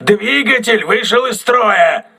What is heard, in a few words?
engine damaged, crew ships russian